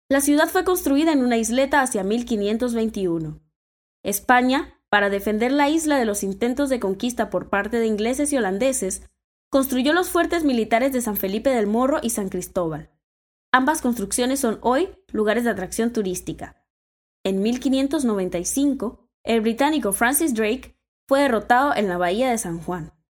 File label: panamanian voiceover locutora panama; locutora de Panamá